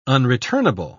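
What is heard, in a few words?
"unreturnable", English, British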